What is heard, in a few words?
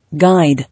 "Guide", English